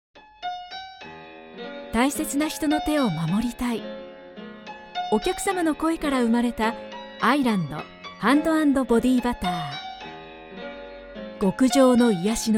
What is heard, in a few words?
japanese commercial